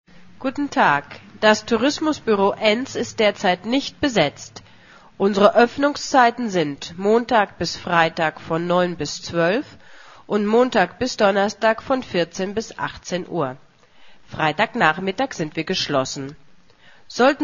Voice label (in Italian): Audioguida